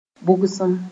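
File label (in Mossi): "bʋgsem", Mooré; wetness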